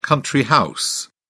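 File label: "country house", British English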